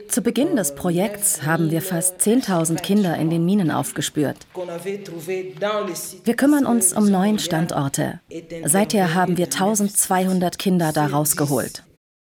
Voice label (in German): Voiceover warm serioes; WDR